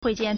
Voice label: "hui4 jian4", Chinese